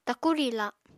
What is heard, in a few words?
"taku riila", Apatani